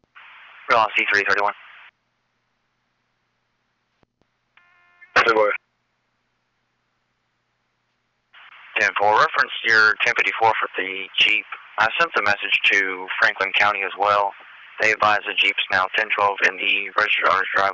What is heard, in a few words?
P25, Trp C Dist 3